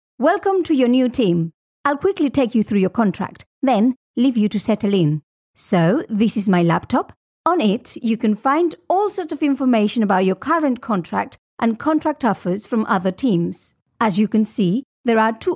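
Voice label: Spanish, female, English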